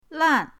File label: "lan4", pinyin; pinyindct-open